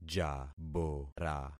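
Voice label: "jabora", concatenated syllables; Affixing experiment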